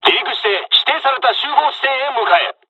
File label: takeoff, dialogs japanese